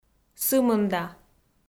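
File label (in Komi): "сымында", Komi, female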